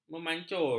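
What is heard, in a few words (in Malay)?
"memancur", Malay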